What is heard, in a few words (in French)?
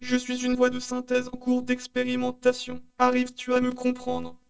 test MaryTTS homme